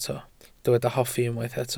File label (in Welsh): "hoffi", Welsh